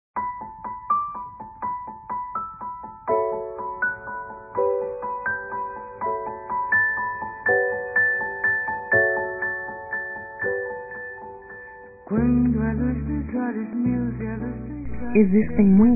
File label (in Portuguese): Dia das Mães Geral Feminino, Telemensagens; Telemensagens 2017